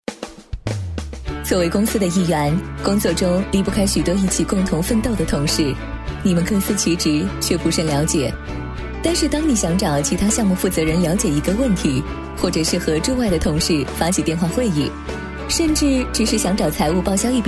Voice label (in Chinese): 女 解说 科技感 流畅自然; 互联网; Tim视频